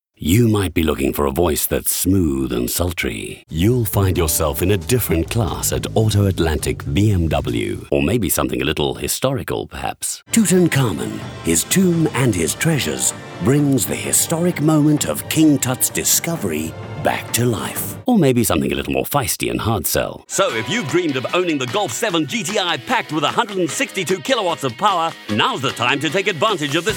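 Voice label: General EN SA, South African English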